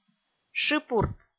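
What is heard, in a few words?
"шыпурт", Komi, female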